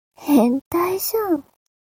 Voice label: mob 女子 台詞

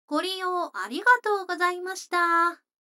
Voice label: 女の子台詞, ASMR

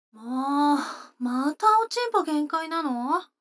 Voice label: 女の子 台詞

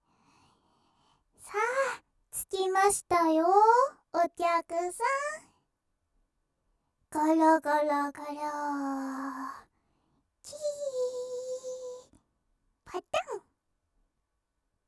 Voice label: 部屋案内, ASMR

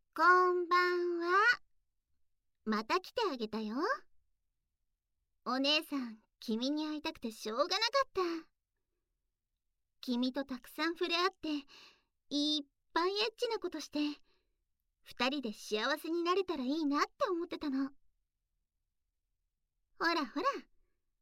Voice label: 耳舐め, ASMR; 耳舐めで二回ぴゅっぴゅね?

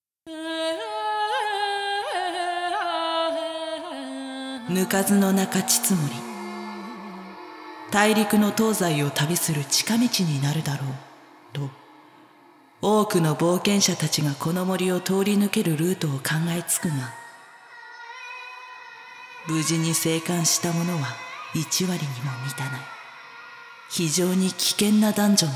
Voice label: ナレーション, ASMR; 淫妖精の森

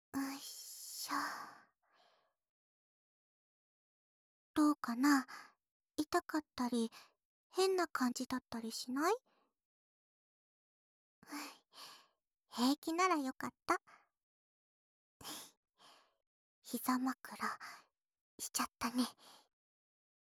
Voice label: 彼女の集中耳かき; ぶきよーな彼女の集中耳かき

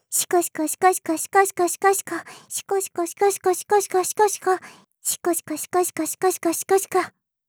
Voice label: シコシコオンリー できるだけ 速く

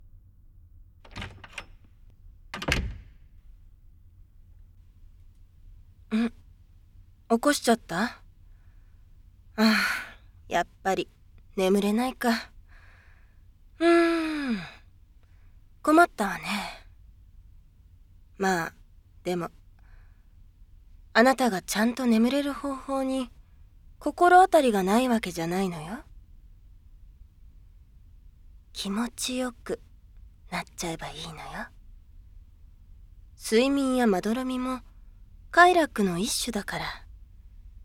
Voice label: 催眠導入

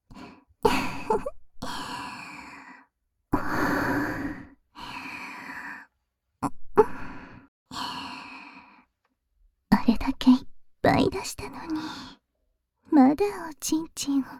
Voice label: 囁き, バイノーラル 効果音あり大きめ; ママの誘惑あまあま囁きオナホ

